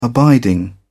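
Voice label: "abiding", British English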